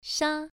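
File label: "sha" Mandarin Chinese, first tone, pronunciation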